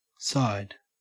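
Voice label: "side", Australian English; pronunciations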